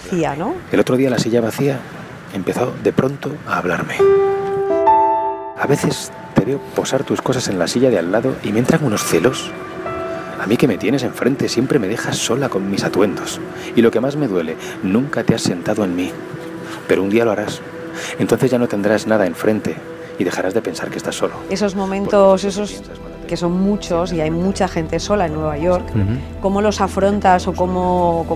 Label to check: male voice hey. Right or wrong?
Wrong